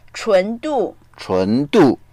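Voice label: chun2 du4, Chinese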